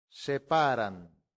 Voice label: "separant", latin; santillana